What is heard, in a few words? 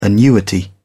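"annuity", British English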